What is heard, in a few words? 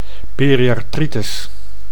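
"periarthritis", Dutch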